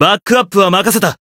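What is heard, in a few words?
Voice variable chant Japanese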